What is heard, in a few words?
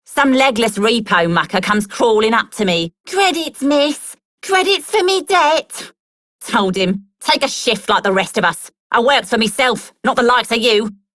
Female Worker, en; Dialog; Warframe; Solaris Venus